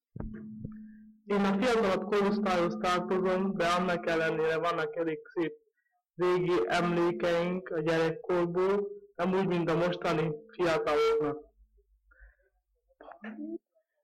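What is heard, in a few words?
dialect collection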